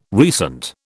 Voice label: "recent", British